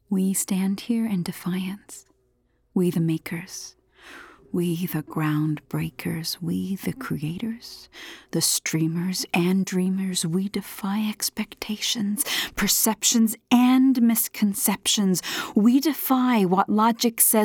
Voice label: USA COMMERCIAL, American Comm